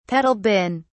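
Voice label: "pedal bin", English, British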